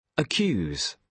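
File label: "accuse", British English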